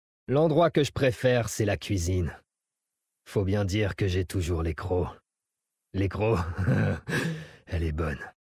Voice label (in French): Dracthyr Male Blague Cuisine, VF